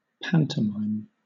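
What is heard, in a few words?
"pantomime", British English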